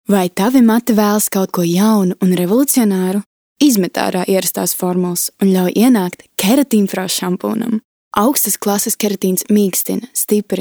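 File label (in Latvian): PT Reklama, advert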